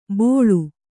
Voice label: "ಬೋಳು", Kannada

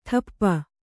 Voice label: "ಠಪ್ಪ", Kannada

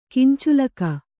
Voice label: "ಕಿಂಚುಲಕ", Kannada

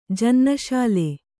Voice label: "ಜನ್ನಶಾಲೆ", Kannada

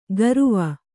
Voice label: "ಗರುವ", Kannada

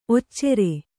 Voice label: "ಒಚ್ಚರ", Kannada

